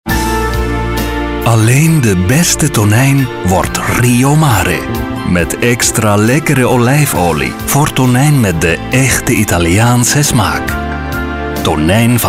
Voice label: accent Italian